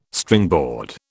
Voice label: "Stringboard", British

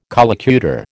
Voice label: "collocutor", American

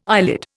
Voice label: "eyelid", Indian

